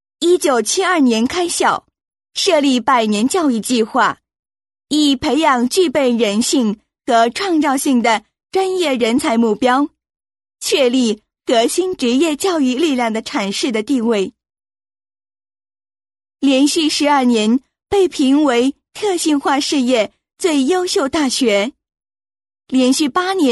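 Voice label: Chinese, female, various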